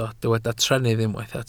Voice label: "trennydd", Welsh